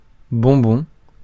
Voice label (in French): "bonbon", français